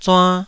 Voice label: "zuann2", taiwanese

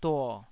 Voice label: "duo3", Taiwanese

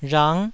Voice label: "rhang9", Taiwanese

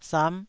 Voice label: "sam9", taiwanese syllable

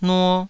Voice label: "nuo2", Taiwanese

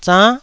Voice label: "zann9", taiwanese syllables

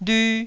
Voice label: "lyu1", Taiwanese syllable

